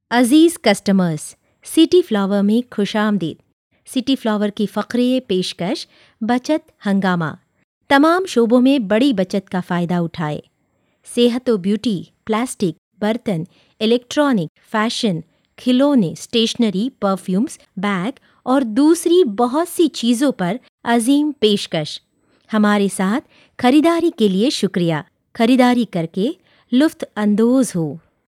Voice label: Urdu Instore Announcement

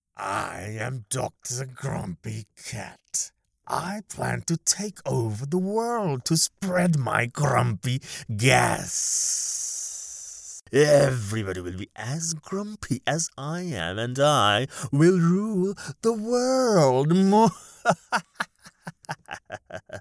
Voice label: English Animation Characters

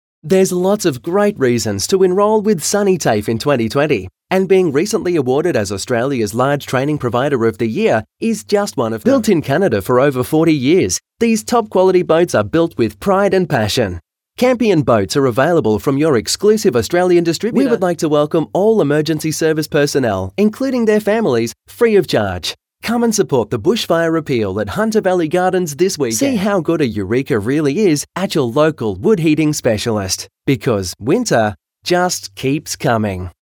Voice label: Voiceovers TV Radio Online; Hardy Audio

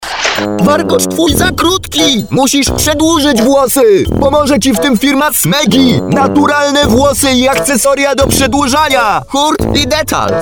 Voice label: commercial character

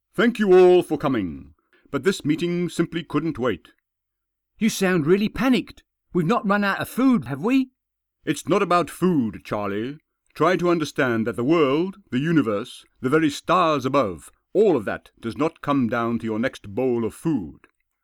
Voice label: audio book

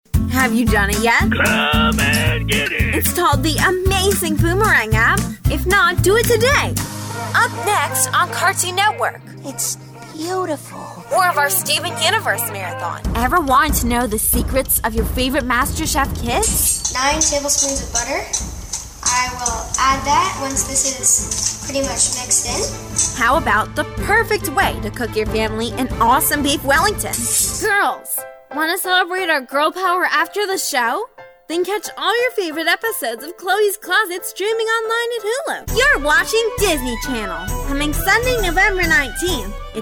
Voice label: promo; Speedo

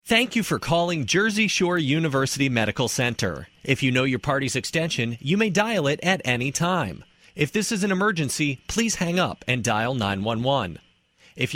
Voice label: PHONE

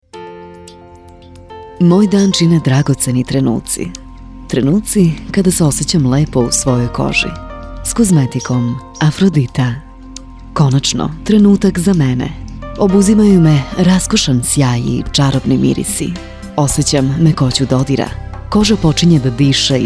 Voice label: Serbian VO for TV Commercial; Afrodita

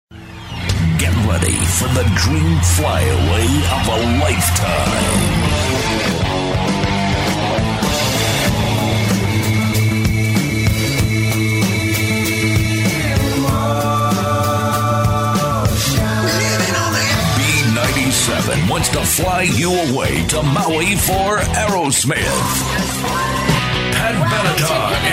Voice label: Concert Promo

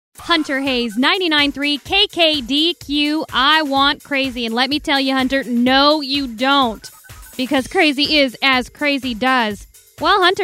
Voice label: radio announcing

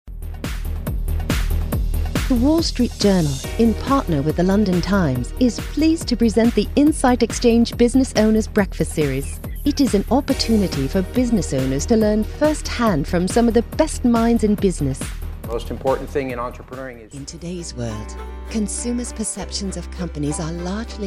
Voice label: British Corporate Narration

